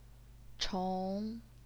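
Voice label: "chong", second tone, Chinese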